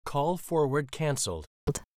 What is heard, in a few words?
american english Call Forward Cancelled